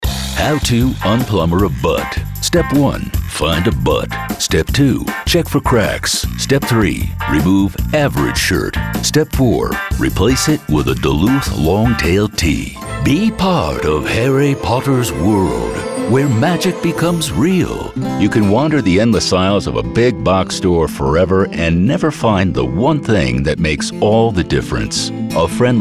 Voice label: Commercial